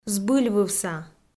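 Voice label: "збыльвывса", Komi, female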